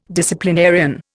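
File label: "disciplinarian", Indian English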